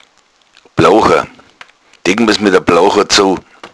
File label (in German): bloucha, bayerischen Dialekt; etwas mit einer Bloucha = Plane abdecken; Plahen = grob leinern Tuch.; Lateinische Sprachrelikte im bayerischen Dialekt